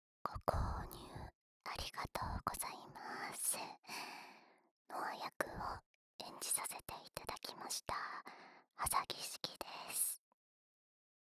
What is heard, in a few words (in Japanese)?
フリートーク, ASMR